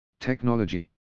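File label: "technology", English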